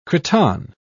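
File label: "cretonne", British English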